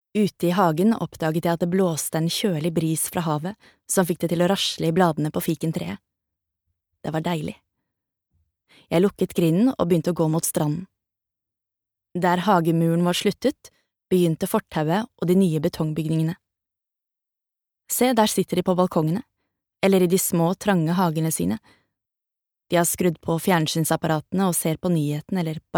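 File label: no audio book, Norwegian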